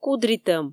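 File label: "кудритӧм", Komi, female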